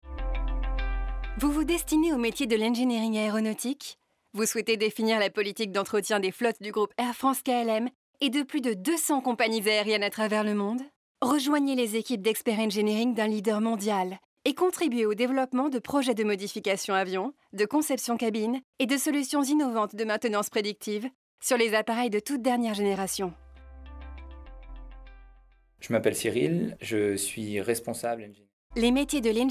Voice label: CORPORATE; Air France KLM

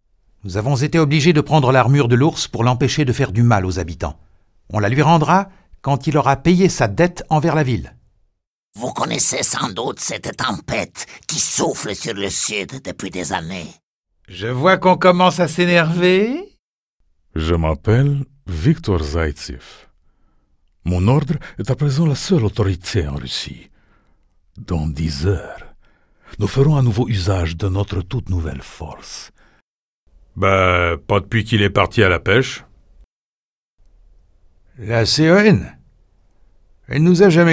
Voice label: MEDLEY PERSONNAGES VIDEOGAMES

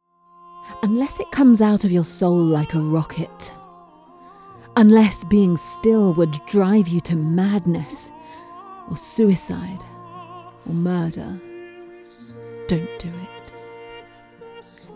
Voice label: ENG Enigmatic; Bukowski

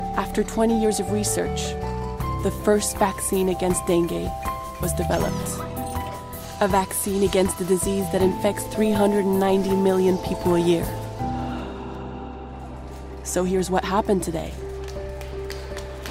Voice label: English

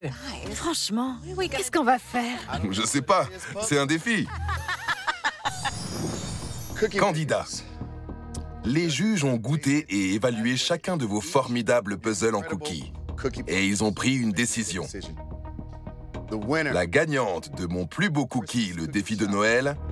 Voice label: Voice over; Christmas Cookie Challenge

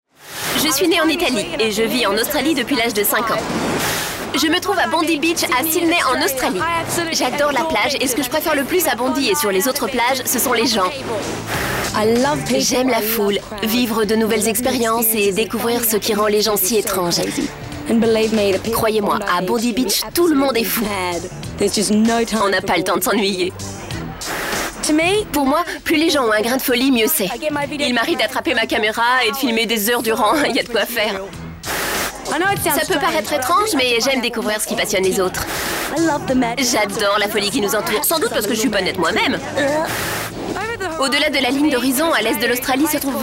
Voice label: VOICE OVER DYNAMIQUE

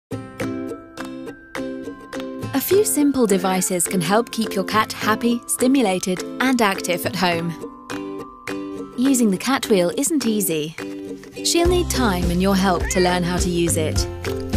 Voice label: instructions friendly; PerfectFit

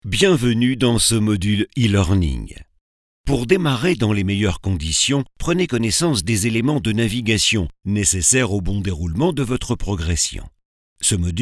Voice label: Elearning

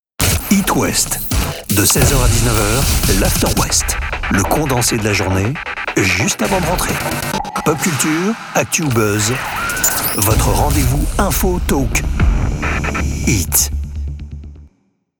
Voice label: Liner; voix-off; HIT WEST

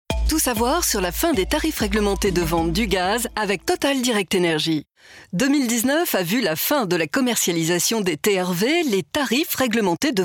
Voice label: Testimonial

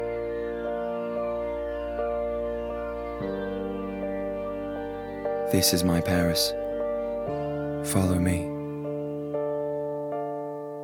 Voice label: UK; MON PARIS COUTURE YSL

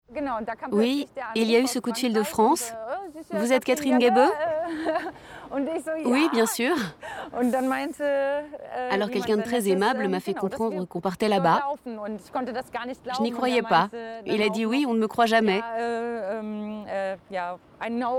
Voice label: VOICE OVER

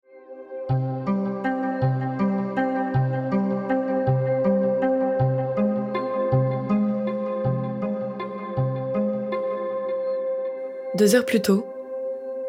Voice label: Narration; La larme au coeur; La voie de l'encens

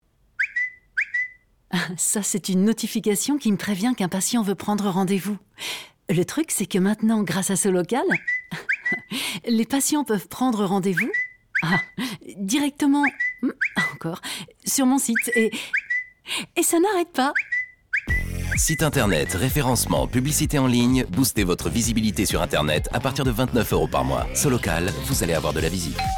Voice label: Radio Interruption; DasDing; SoLocal

